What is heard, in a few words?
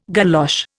"galosh", Indian